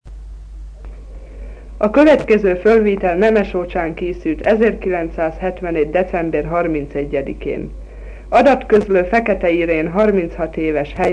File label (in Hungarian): nyelvjaras gyujtesek